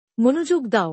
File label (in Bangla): Pay attention Bangla; Time and Routine